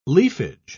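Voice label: "leafage", English, British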